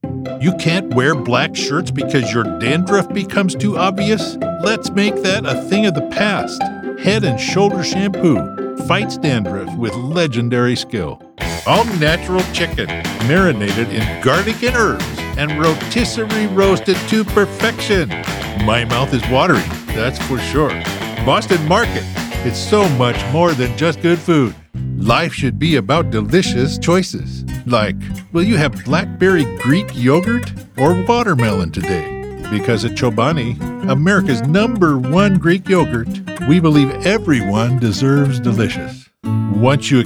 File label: Commercials